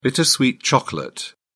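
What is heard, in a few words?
"bittersweet chocolate", British English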